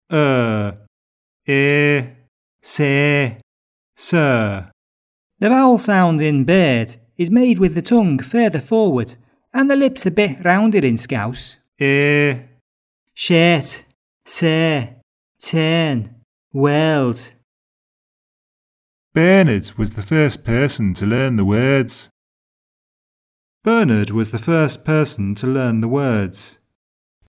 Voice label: neutral vowel